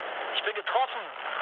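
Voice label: German aircraft destroyed mood med, speech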